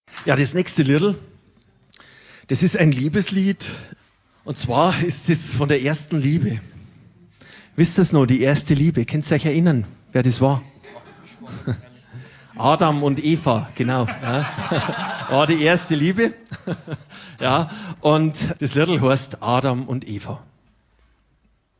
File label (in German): Ansage